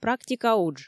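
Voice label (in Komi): практика удж, Komi, female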